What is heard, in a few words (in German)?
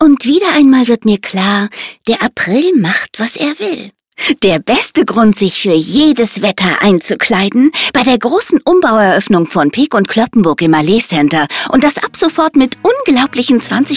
Funkspot; Peek Cloppenburg; Umbaueroeffnung; bunch sound; Berlin; Funkspotproduktion